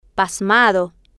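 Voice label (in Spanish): "pasmado", Spanish, female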